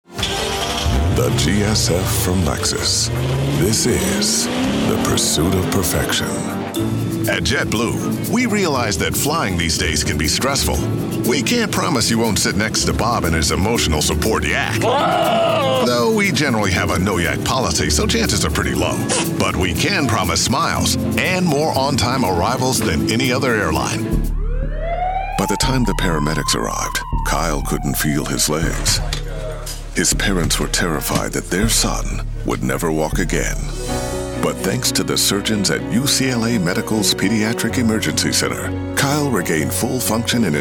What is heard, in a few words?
Commercial